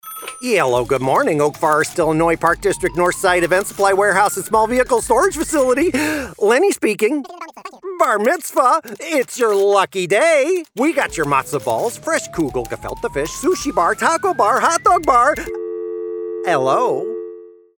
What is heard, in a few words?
Animation; Lenny